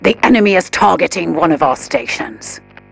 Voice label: advisor notification station under attack, militarist; Stellaris; ethics